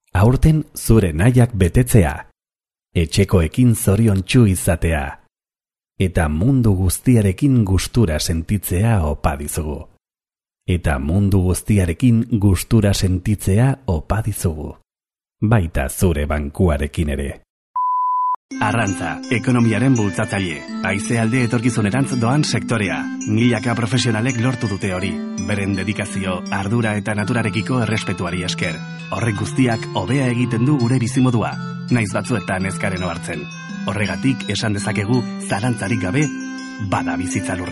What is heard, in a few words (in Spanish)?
narración euskera